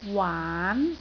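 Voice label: "waan2", Cantonese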